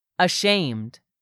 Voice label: "ashamed", english; 3000 words